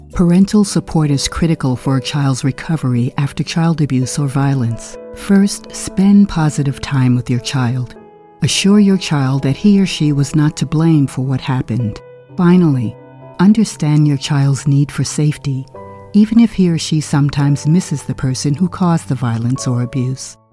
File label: Child Abuse eLearning excerpt